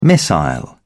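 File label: "missile", British English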